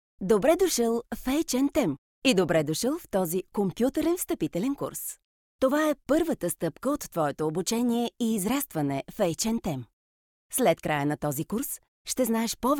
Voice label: bulgarian f e-learning, voice; voice demos

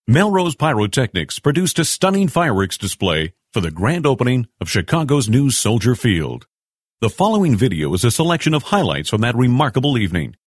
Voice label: english us m narration, voice demos

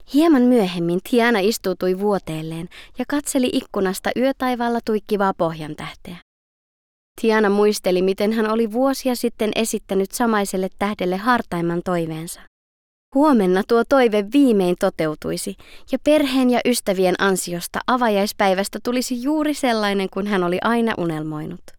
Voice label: finnish f narration; voice talents

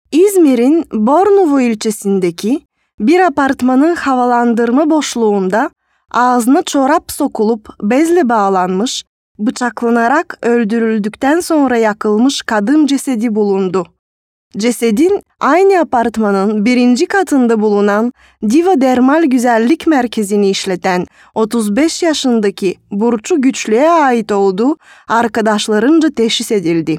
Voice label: turkish f narration; voice demos

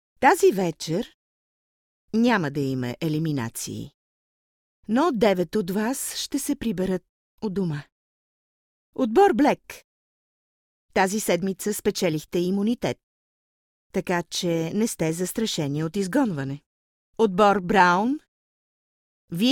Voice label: bulgarian f narration; voice demos

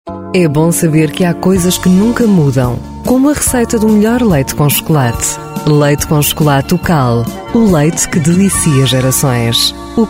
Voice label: portuguese f commercial; voice demos

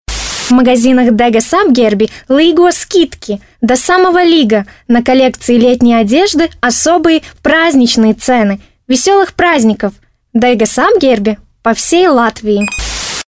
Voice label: russian f commercial; voice demos

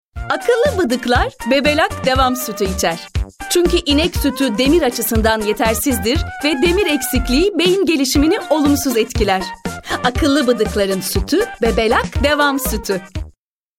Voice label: turkish f commercial

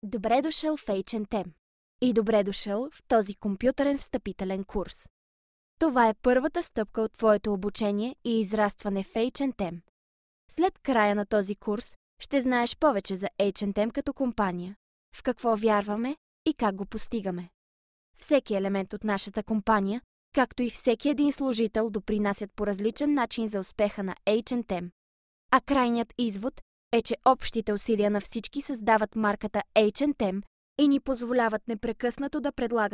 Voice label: bulgarian f e-learning, voice; voice demos

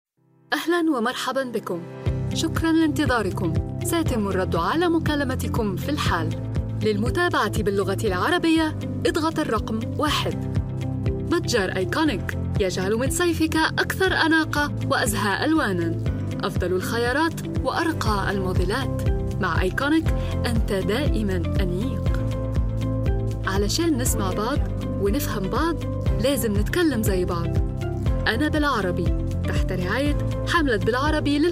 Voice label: arabic f commercial

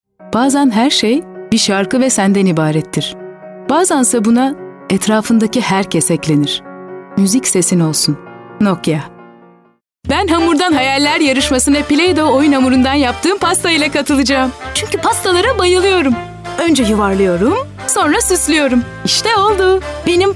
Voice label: turkish f commercial

